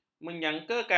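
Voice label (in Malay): "menyangkakan", Malay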